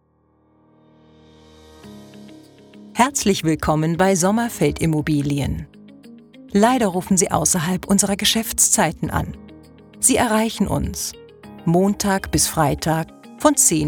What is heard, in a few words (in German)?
Telefonansage